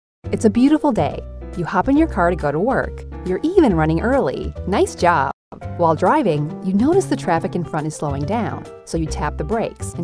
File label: Explainer video